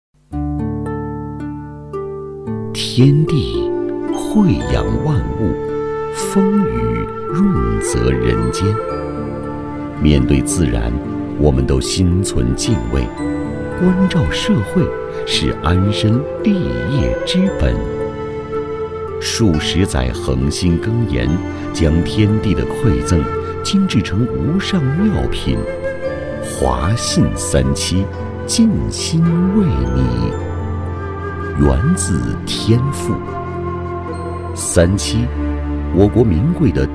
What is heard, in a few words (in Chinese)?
男, 品牌广告; 华信三七广告宣传